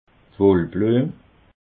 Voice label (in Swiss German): "wollbluem", alsace